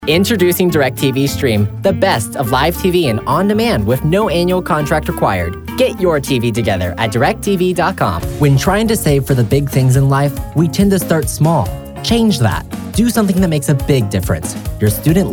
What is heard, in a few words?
Commercial